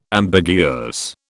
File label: "ambiguous", British